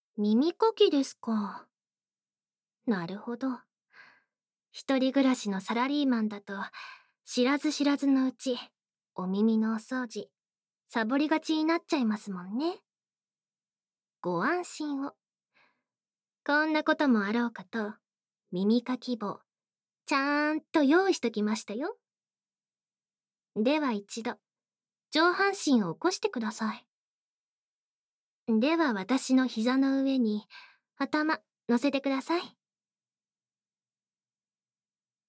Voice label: 小悪魔ナースのお耳官能看護【耳かき・耳ふー・耳オイルマッサージ・吐息】(効果音なし)

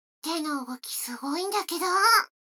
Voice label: 女の子 台詞

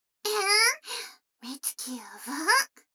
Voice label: 女の子 台詞

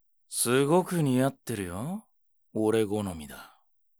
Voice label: homeru, ASMR

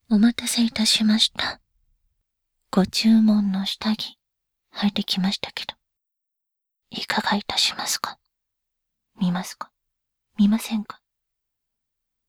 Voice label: バイノーラル音声; ご注文の…

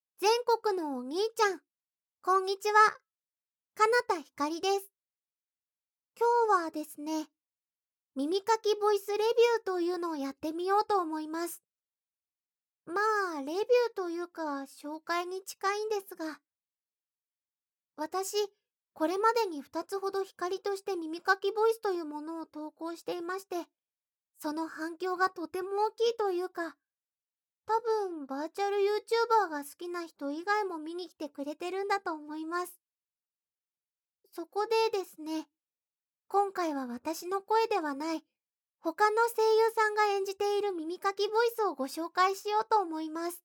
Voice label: 耳かきボイス, ASMR

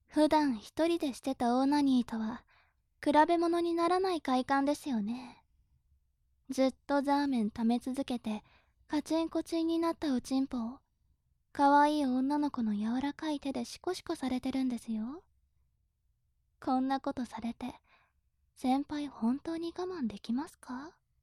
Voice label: 最終日10カウント中, ASMR

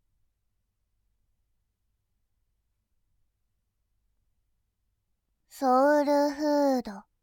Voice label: "ソウルフード", ASMR; モノラル

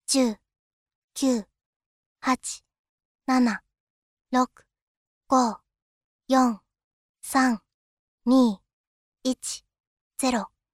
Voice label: 10から0へカウント; 恋ちゃん

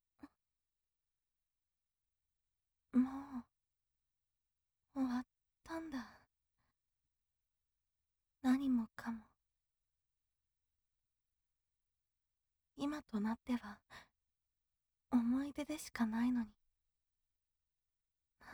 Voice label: 病みもち女の子 本編効果音なし

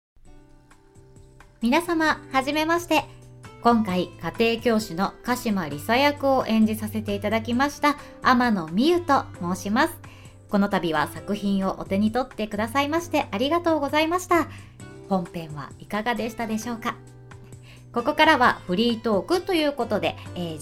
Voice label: フリートーク BGM 効果音入り

